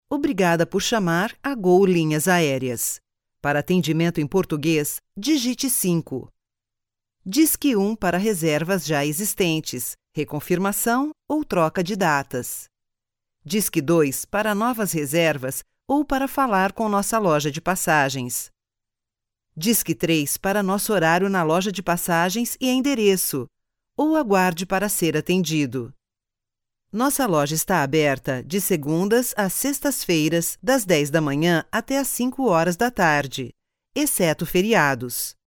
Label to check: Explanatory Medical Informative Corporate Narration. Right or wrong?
Wrong